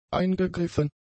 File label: "eingegriffen", German; adjectives